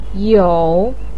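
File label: "you3", Mandarin pinyin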